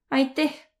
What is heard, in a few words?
thanks, Võro